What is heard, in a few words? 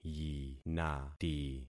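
"yinati", concatenated syllables; Affixing experiment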